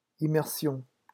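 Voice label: "immersion", French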